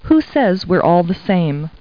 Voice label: people, kid